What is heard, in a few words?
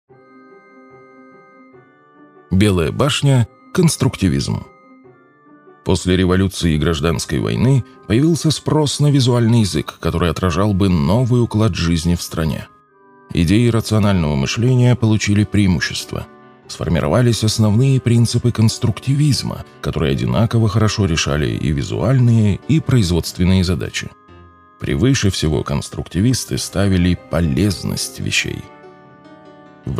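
russian male narration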